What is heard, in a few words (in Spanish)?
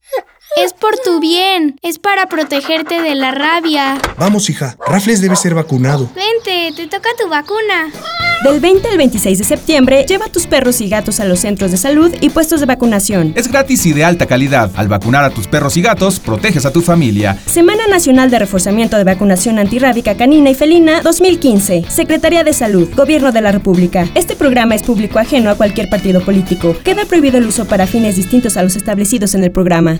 Spot Radio; Spot Reforzamiento Radio 2014; zoonosis